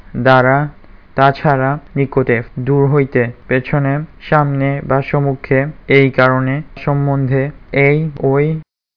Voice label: bengali; words